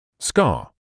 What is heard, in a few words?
"scar", English